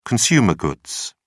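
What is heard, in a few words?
"consumer goods", British English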